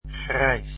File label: grey, dutch